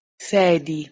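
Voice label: "sedi", Proto-Indo-European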